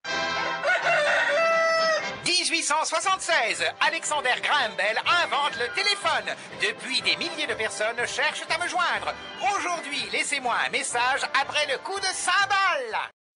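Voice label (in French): retro, Messages; répondeur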